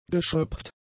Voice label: "geschröpft", German; adjectives